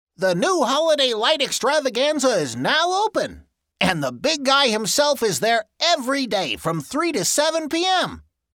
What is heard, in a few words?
Elf, holiday voice over; Other vocal styles often heard around the holidays